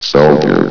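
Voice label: "soldier", male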